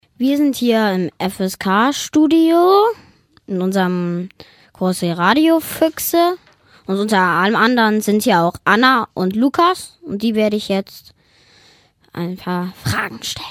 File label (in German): tiere studiogespraech